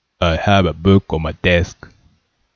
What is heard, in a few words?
I have a book on my desk flat